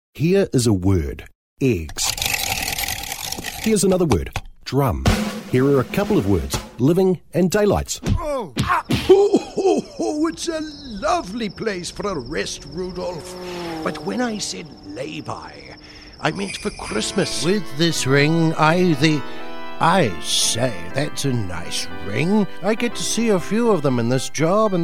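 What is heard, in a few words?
English New Zealand male; dictor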